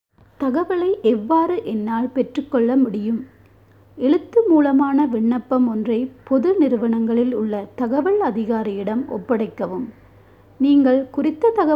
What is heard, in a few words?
Tamil